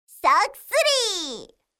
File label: Clear Voice; RandomGFGoStop